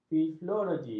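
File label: "filologi", Malay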